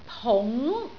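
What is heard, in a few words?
"pung2", Cantonese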